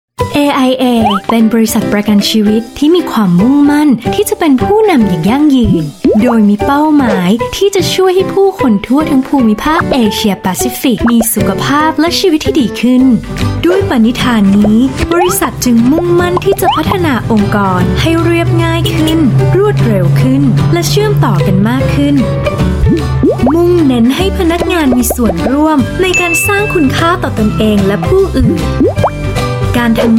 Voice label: Thai Female Narration General Calm